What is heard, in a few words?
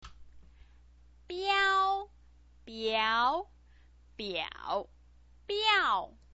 "biao", chinese pinyin